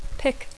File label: "pick", English; triar; escollir; seleccionar